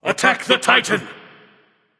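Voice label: vox attack male; smite; agni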